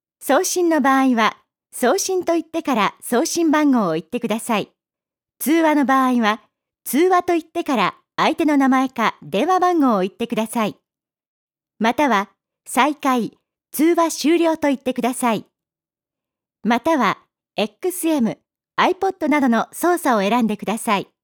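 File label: Japanese navigation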